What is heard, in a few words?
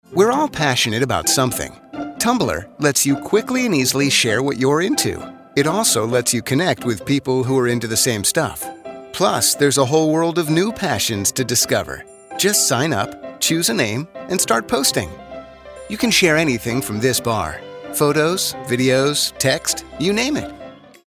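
American corporate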